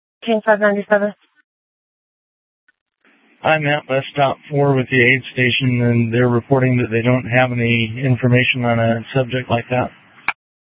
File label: Sheriff Shrf Lakes, scanner P25; Maricopa County (P25); Thompson Peak